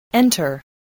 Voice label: "enter", voice, english

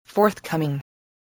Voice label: "forthcoming", English voice, spelling

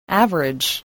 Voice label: "average", english

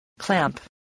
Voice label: "clamp", English, voice

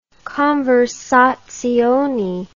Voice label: "conversazione", English

